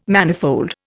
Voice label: "manifold", Indian

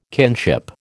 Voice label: "kinship", American

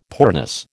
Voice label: "poorness", American

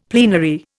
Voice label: "plenary", Indian; Dictionary

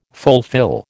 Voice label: "fulfil", American

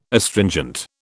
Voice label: "Astringent", British

